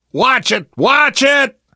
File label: team kill accident, left4dead2 survivor voice namvet; source engine